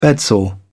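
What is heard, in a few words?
"bedsore", English, British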